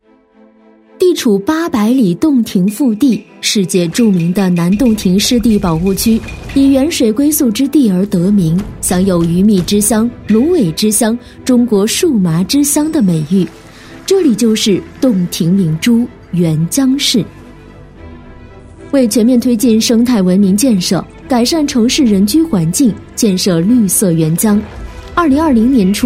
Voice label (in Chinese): 女 城市宣传片 大气稳重; 沉稳大气; 洞庭湖